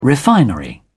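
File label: "refinery", English, uk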